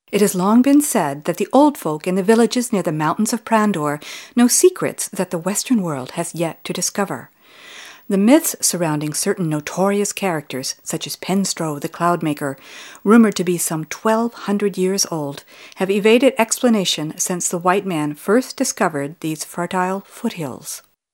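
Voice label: F EN documentary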